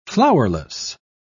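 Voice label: "flowerless", British English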